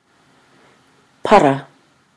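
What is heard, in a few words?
"para", Māori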